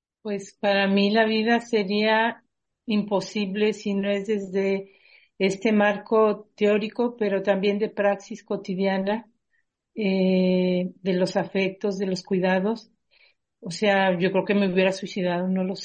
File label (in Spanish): testimonio